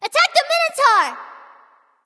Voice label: vox attack m; smite; cupid